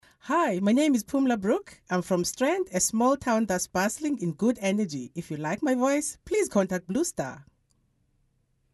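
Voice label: intro english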